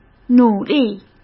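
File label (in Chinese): "努力", Mandarin Chinese; chinese-flashcards; hsk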